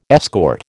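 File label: "escort", American English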